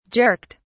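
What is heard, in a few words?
"jerked", British English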